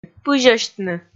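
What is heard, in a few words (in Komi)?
"пызьӧсьтны", Komi, female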